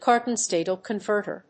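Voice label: "current voltage converter", English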